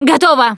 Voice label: defibrillator, russian; Producer